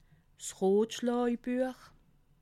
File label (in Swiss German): "rotschlaabuech", alsace